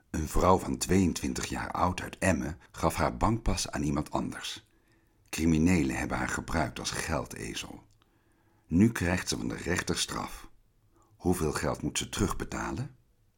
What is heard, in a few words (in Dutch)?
een vrouw van 22 jaar oud